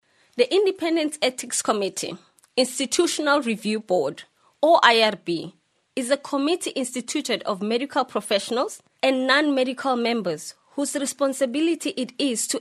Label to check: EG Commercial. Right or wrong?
Wrong